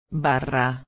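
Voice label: "barra", Spanish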